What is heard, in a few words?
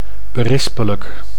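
"berispelijk", Dutch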